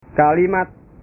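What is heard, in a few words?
"kalimat", Indonesian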